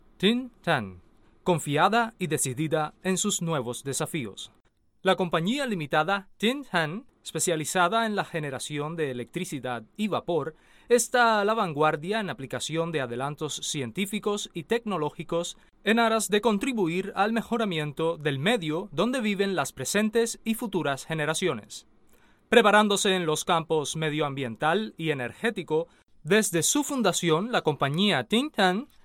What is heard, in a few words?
Spanish